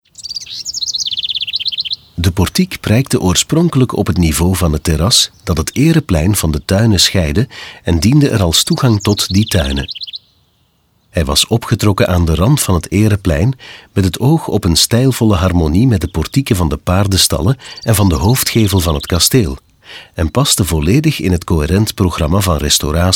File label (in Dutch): audioguide nl adulte; jardin; modave